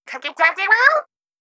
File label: Voice Excitement; Marie